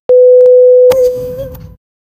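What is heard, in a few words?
girl affirmative sound; female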